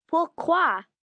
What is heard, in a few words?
French Why, Questions, child, 7 yrs., French; Talking Sound Effects; VoiceBox; Twistedtracks